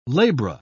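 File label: "labra", British English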